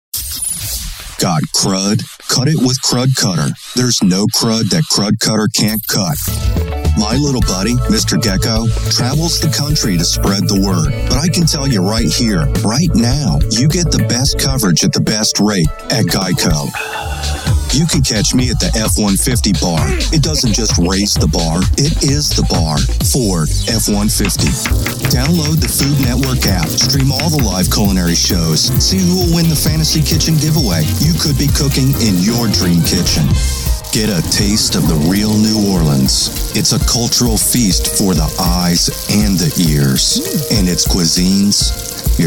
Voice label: commercial promo